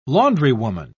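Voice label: "laundrywoman", British English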